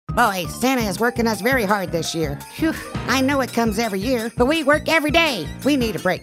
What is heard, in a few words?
holiday cartoon humorous